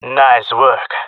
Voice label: compliment, jungle male; player vo; Counter Strike Model Pack; CS:GO